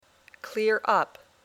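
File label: "clear up", English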